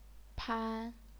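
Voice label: "pan", first tone, chinese pinyin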